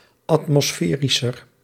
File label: "atmosferischer", Nl